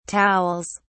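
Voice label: "towels", British English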